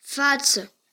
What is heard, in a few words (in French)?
"visage", ro